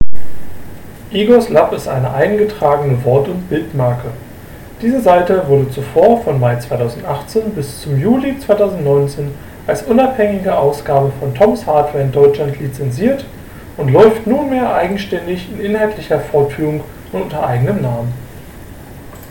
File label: UM340 Mic